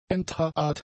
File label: "enthaart", German; adjectives